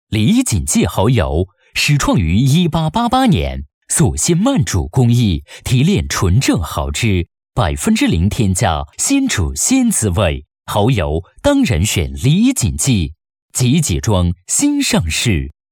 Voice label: 广告 蚝油 质感 大气; 李锦记

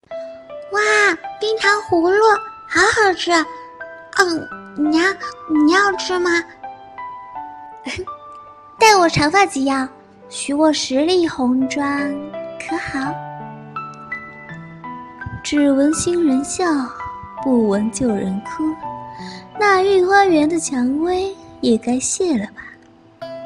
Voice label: 多声线

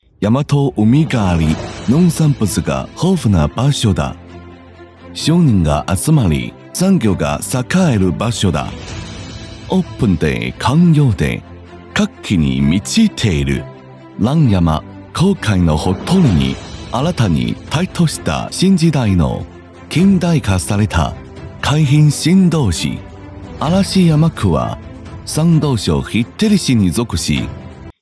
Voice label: 日语专题; 山と海があり

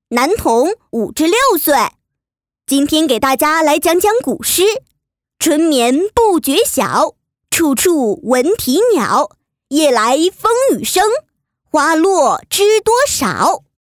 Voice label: 童声 男童 比较正的主角音

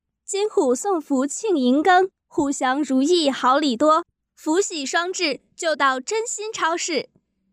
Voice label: 广告 配音

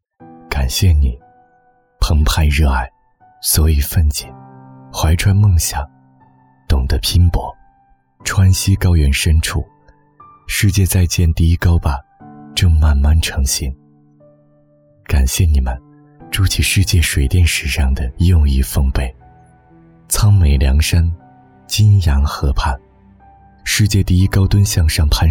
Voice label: 宣传片温情独白

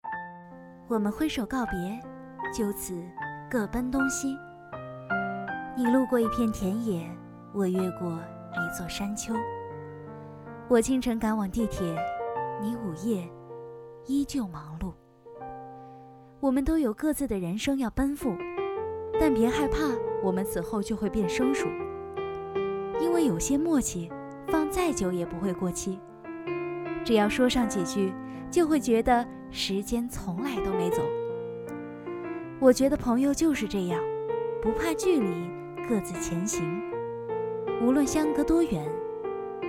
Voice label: 治愈温暖

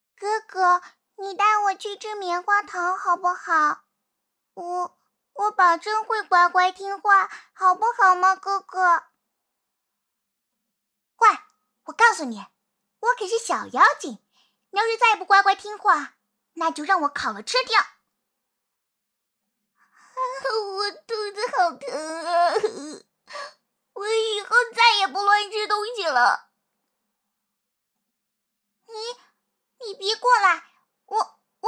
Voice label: 萝莉角色音

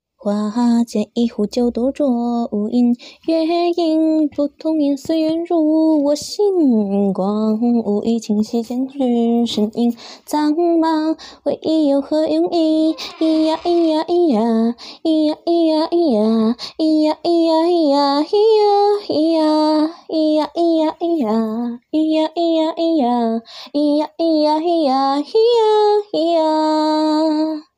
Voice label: 古风; 配音圈古风

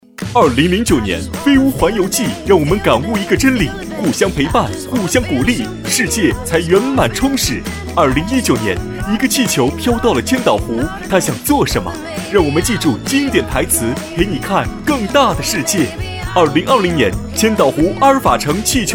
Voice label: 大气广告宣传

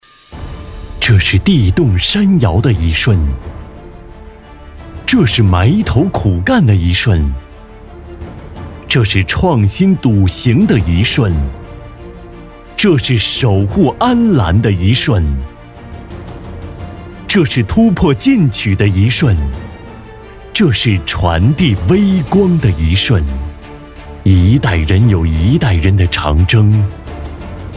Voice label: 宣传片 大气浑厚

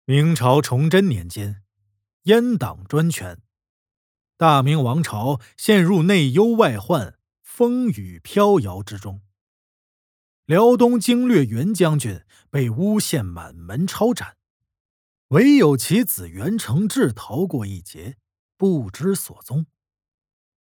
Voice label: 背景 旁白 男声

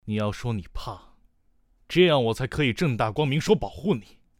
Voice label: 成熟的青年

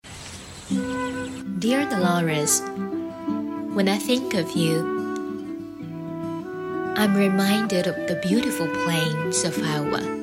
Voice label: 英文独白温柔恬静

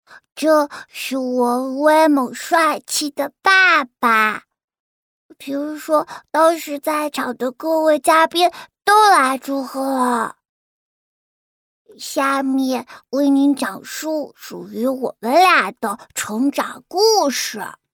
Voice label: 奶声奶气小宝宝

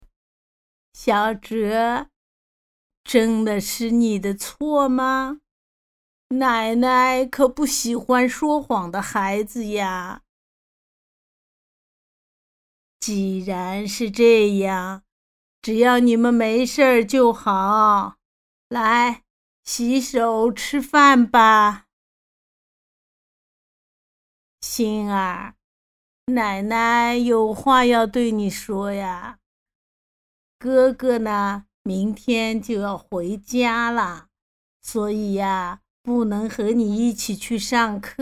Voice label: 老年妇女

